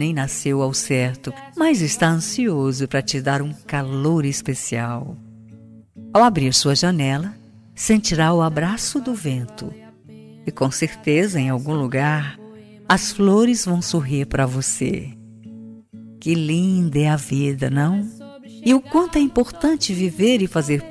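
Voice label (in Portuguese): birthday GENRO female, telemensagem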